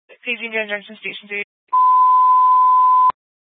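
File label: Fire Dispatch, Scanner audio recording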